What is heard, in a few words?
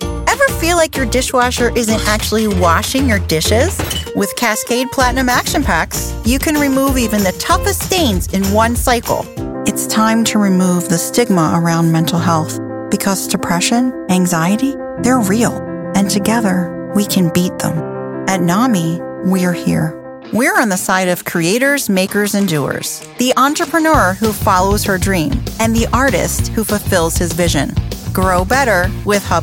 Commercial